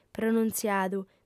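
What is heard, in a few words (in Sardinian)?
"pronuntziadu", female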